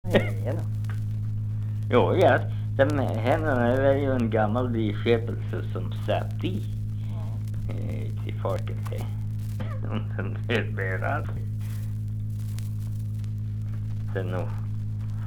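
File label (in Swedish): Norsjö Västerbotten, dialekter; Folkminnen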